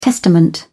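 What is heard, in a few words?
"testament", English, British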